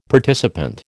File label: "participant", American